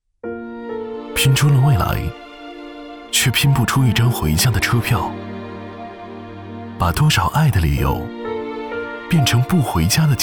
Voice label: 男 公益旁白 回家过年

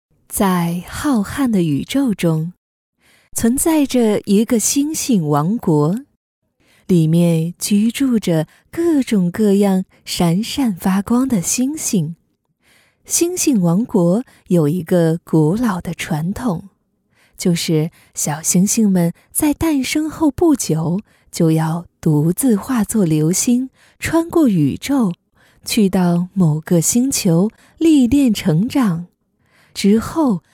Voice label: 女55 【课件解说】儿童读物

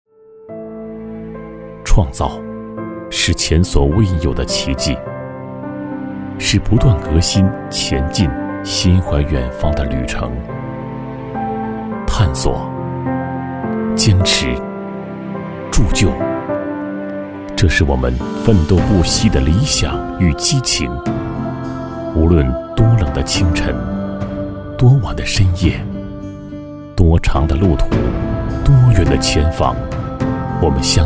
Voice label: 男 感情

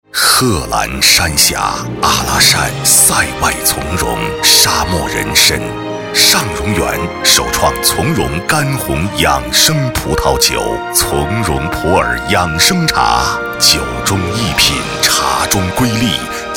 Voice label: 男55 干红葡萄酒（大气品质）; 酒苁蓉干红葡萄酒

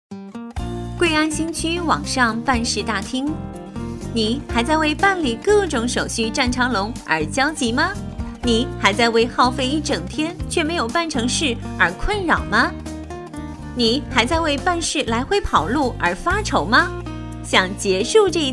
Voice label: 女 甜美; 网上办事大厅

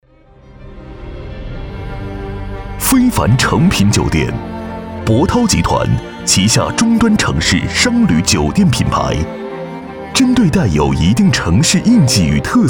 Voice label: 男 浑厚沉稳; 非凡酒店

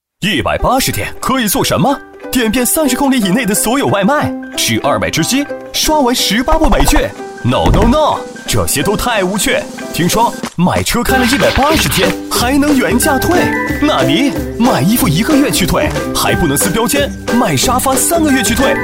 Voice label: 男 长安cs95; 大气浑厚

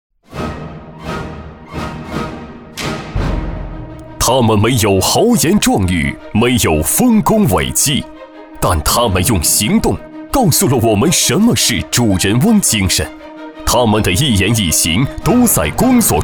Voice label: 男 企业颁奖 (大气激情)

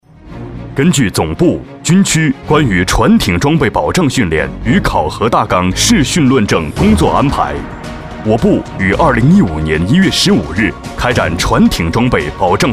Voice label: 男32 【专题】政府类汇报（正式严肃）

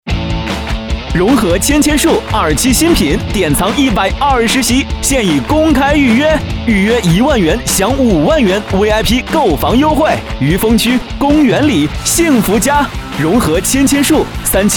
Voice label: 男 房产 (年轻欢快); 飞碟说

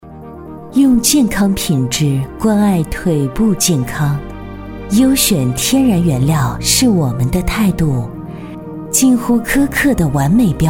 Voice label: 女 （品质）

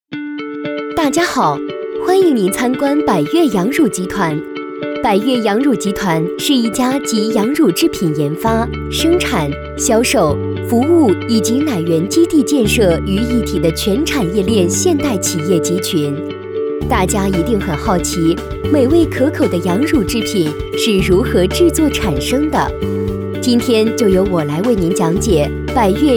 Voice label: 女 羊乳集团产品解说

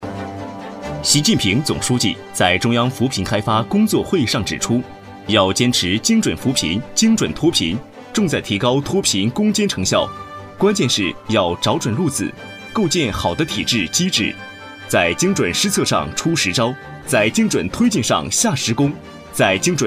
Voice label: 男 新闻播报

